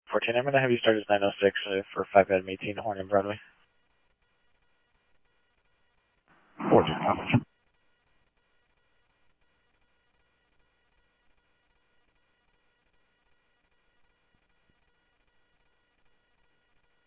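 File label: Mesa Police Department, scanner audio trunk; Mesa Simulcast; MESA PD 1 Fiesta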